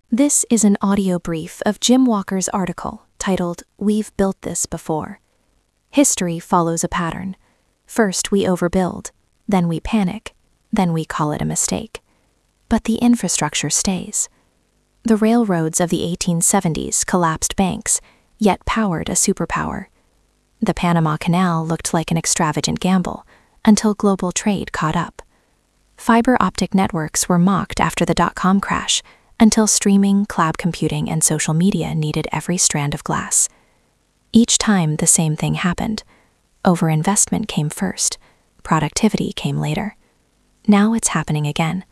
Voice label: narration